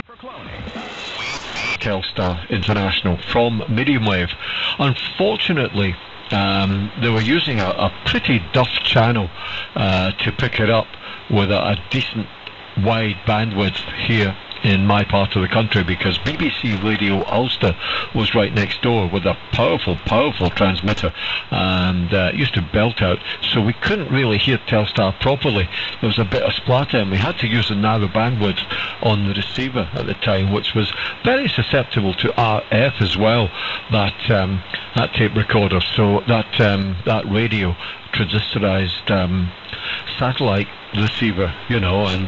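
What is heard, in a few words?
German AM; 3975,0; Skyline Radio Germany; Winsen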